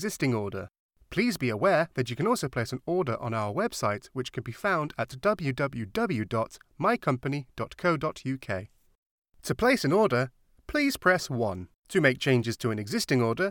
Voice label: IVR XMAS Catalogue